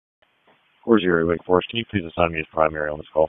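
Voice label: Wake Forest Police Dispatch, p25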